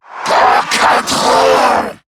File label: Player Spotted, en; Zariman Wraith